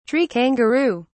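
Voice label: "tree kangaroo", British English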